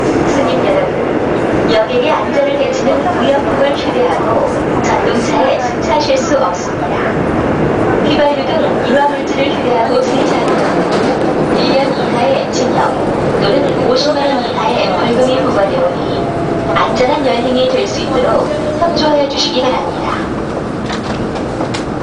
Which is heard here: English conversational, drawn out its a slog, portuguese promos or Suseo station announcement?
Suseo station announcement